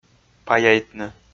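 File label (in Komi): "паяйтны", Komi, male; audio dict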